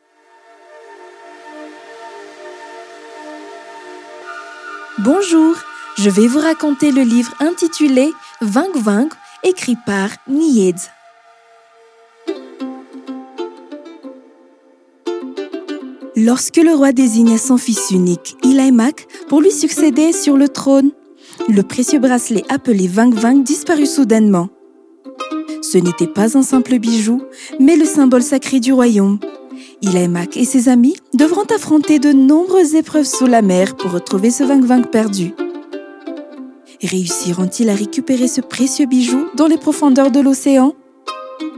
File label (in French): Voix off version Francais; Madagascar; vangovango conte; océan Indien